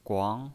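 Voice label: "guang", Mandarin Chinese, second tone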